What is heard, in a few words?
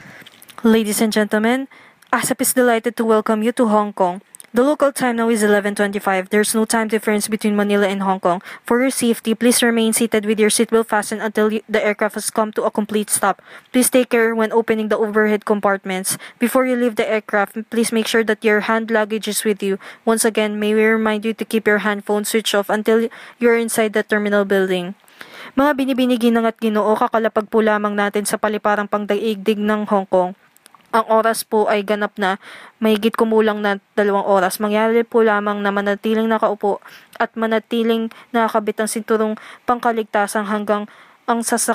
airplane announcements